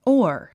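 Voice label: "oar", English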